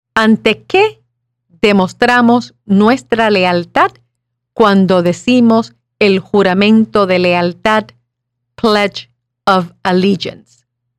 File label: Spanish